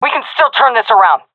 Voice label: round losing, seal fem; vo; Counter Strike Model Pack; CS:GO